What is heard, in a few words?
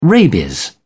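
"rabies", English, uk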